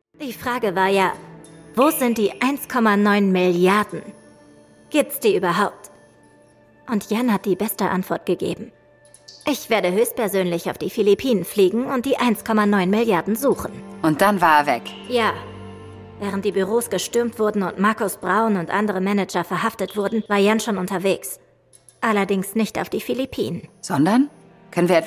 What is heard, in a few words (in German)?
Hoerspiel; die groessten Faelle des BND